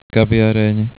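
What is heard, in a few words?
"kaviaren", Slovak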